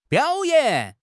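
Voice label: "biao3 yan3", Chinese; HSK4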